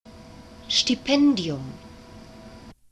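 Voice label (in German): "stipendium", German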